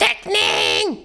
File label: "what the", Danish; Worms Armageddon; Danish-Pyrus